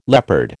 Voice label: "leopard", American

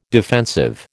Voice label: "defensive", American

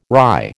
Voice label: "rye", American

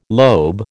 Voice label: "lobe", American

